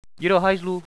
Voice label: negative, radio bot